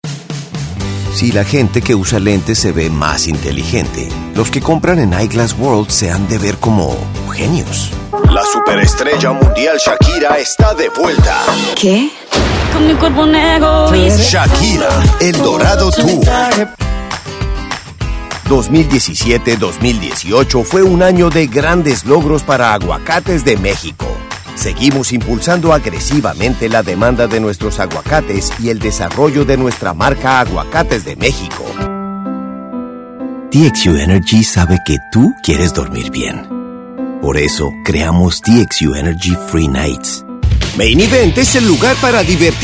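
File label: COMMERCIAL, voice over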